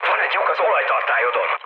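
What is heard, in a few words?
Hungarian damaged oil mood high, speech; War Thunder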